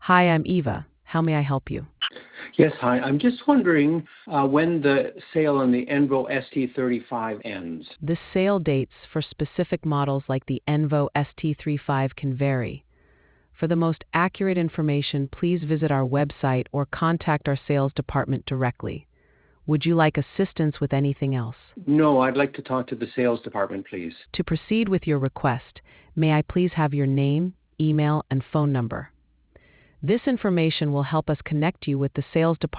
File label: conversation